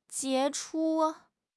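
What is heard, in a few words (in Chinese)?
"杰出", Mandarin Chinese; HSK6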